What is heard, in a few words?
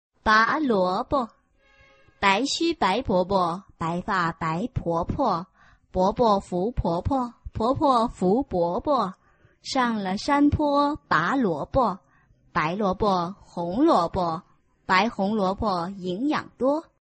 chinese tongue twister